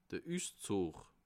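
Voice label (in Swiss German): "uszugg", Bas Rhin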